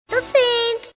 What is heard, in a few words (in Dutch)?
Jingle Tot ziens